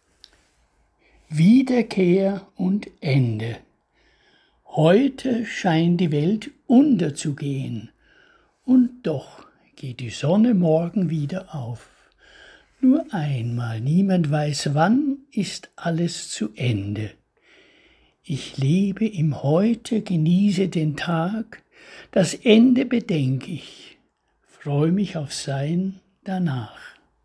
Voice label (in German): Wiederkehr und Ende, Lyrik, Gedichte eigene Lesung